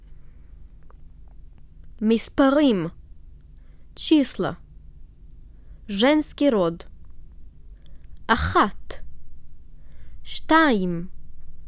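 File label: numbers female, hebrew grammar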